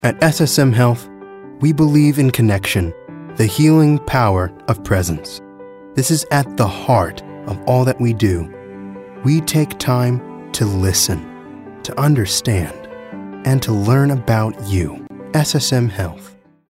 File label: warm